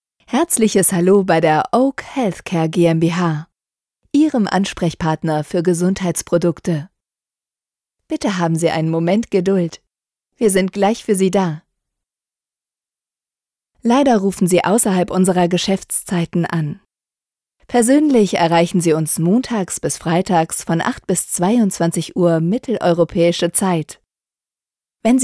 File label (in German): telefonansagen; album telefonansagen